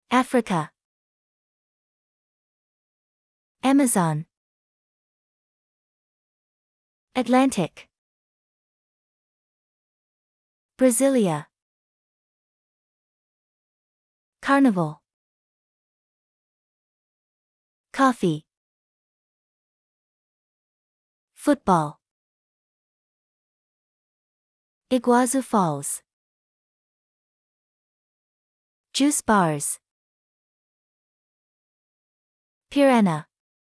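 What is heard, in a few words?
American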